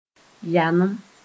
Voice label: "yalem", Moore